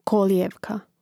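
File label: "kolijevka", Croatian; Mrežnik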